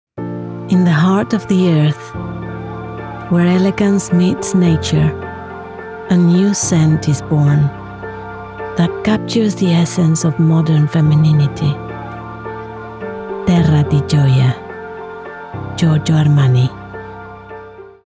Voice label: English; Terra Di Giodia; Mexican female VoiceOver artist